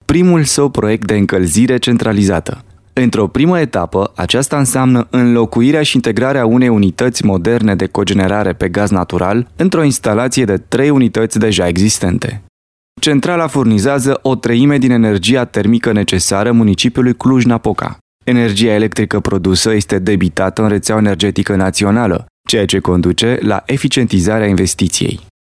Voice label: Romanian Audiobook